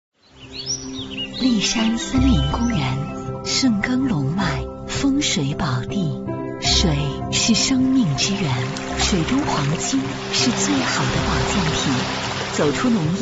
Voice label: 女 宣传片 房地产; 本元舜庄

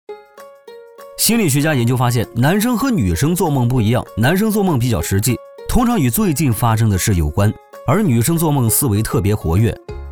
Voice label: 男 旁白 素人感

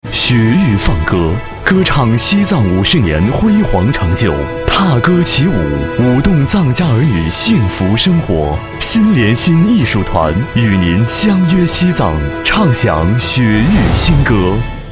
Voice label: 男 宣传片 大气; CCTV

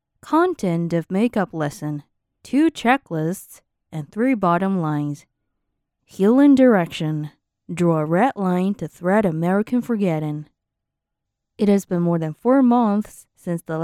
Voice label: 英女 新闻

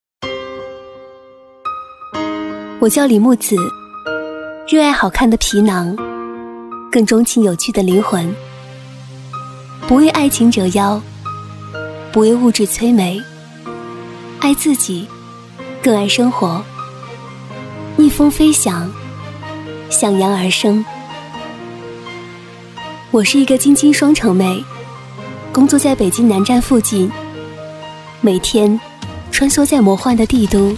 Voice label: 女 独白; 荔城•玉锦园

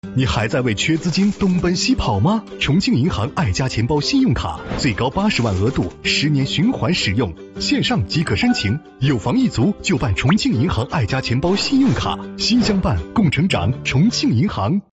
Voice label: 男 MG动画配音; 重庆银行

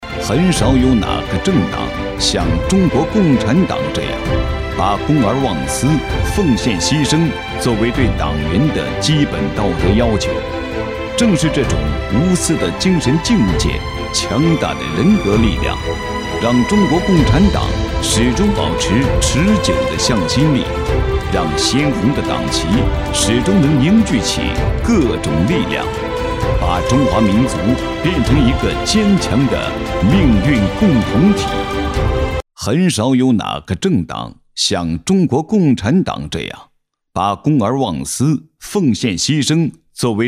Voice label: 男 党建; 中国共产党

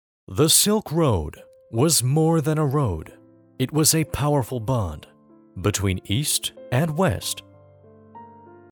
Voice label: 故事讲述; 丝绸之路

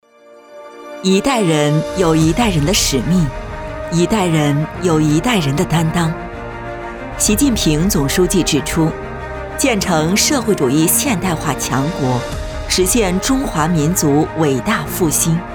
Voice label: 女 专题; 一代人

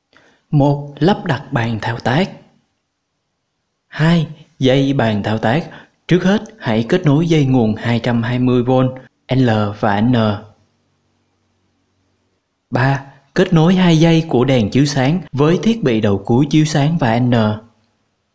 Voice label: 越语; 恒邦科技视频文字

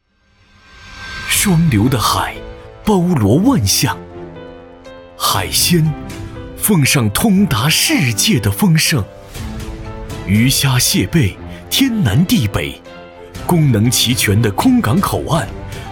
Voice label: 男 宣传片 海鲜

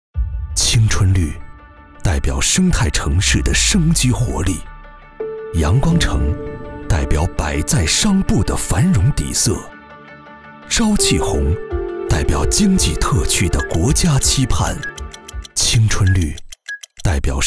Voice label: 男, 宣传片, 亚运会开幕

